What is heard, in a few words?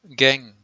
"ghengh" PIE